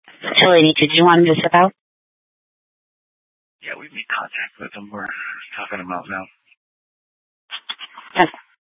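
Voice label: police dispatch, Scottsdale PD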